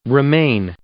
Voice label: "remain", English